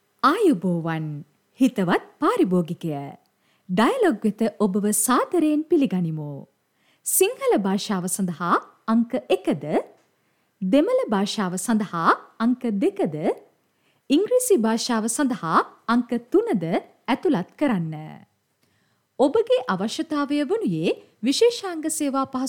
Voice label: FEMALE TELEPHONE